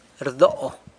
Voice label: "rdo", San Lucas Quiaviní; gets sold